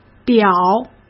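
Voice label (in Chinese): "表", Mandarin; chinese flashcards; hsk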